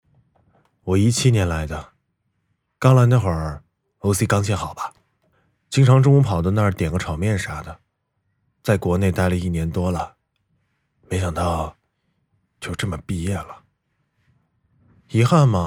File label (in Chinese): 男独白